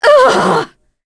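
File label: Vox Dead, English; Naila; kings raid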